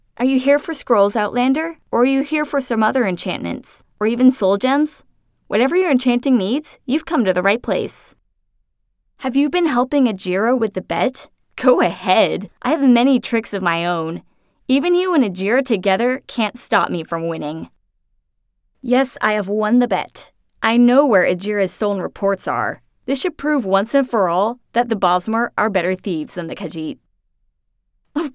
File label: wood elf audition, voice acting